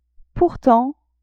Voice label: "pourtant", French; adverbs

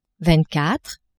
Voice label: "vingt quatre", French; nombres

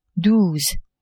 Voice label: "douze", French; nombres

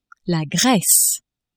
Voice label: "Grece", French

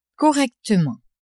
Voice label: "correctement", French; adverbs

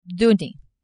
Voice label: "donner", French

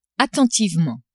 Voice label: "attentivement", French; adverbs

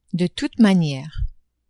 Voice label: "de toute maniere", French; adverbs

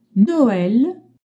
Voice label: "Noel", French

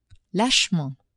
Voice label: "lâchement", French; adverbs

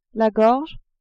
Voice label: "gorge", French; corps

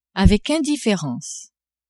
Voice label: "avec indifférence", French; adverbs

